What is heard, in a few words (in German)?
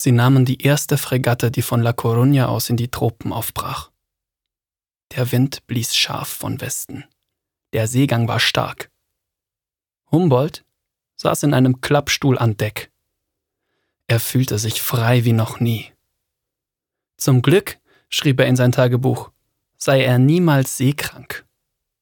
Hoerbuch